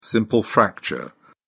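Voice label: "simple fracture", British English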